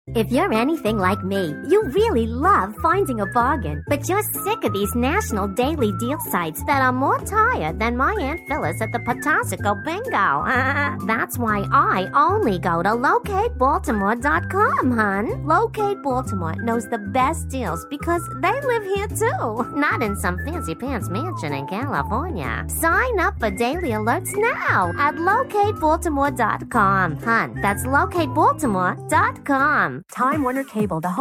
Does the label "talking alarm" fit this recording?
No